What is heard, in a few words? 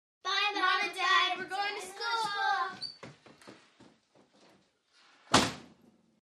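11 Yr Old Girls Off To School, kids play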